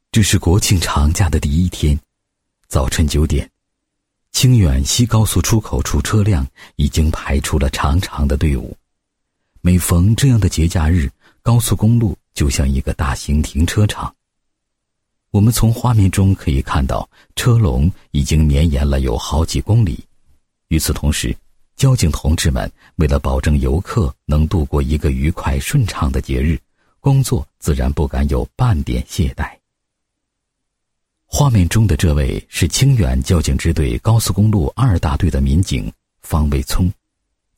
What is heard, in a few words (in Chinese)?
男 人物专题 交警支队民警方某事迹, 配音案例